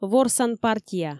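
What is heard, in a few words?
"ворсан партия", Komi, female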